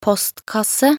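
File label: "postkasse", Norwegian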